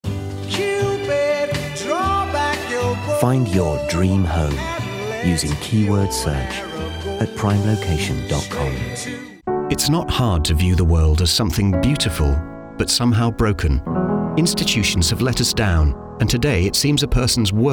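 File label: British English commercial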